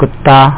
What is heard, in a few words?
dog, Hindi; Java Developer's Companion; WordMatch